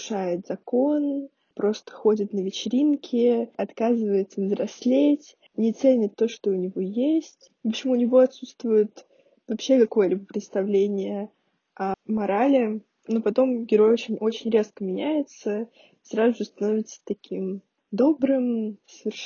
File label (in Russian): отзыв мажор